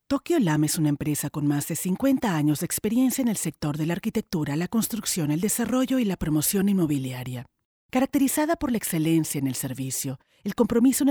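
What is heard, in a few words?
Spanish Latin America female narrative; spanish americas voice over talent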